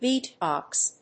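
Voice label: "BEAT BOX", English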